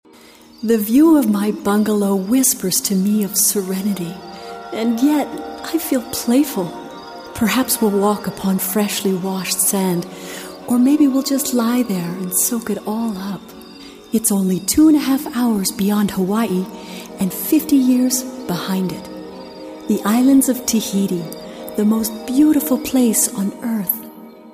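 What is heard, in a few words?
US English, Werbung Sinnlich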